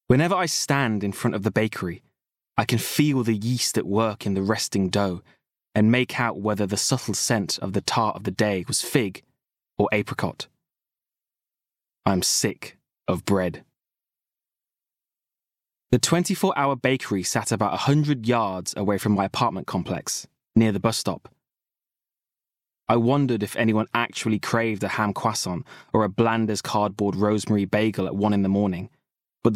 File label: Audiobook, regional accents